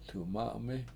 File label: "tu7mA7ami", Washo